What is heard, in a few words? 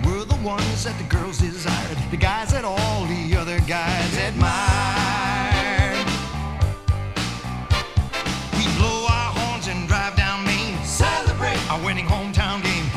Radio Commercials - Pabst Blue Ribbon Beer